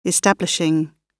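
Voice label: "establishing", British English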